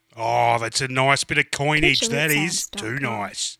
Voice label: Aussie Donation